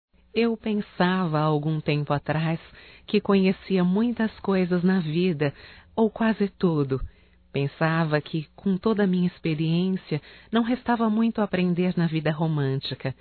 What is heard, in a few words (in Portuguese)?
ROMANTICA(F), Românticas, fem